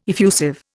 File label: "effusive", Indian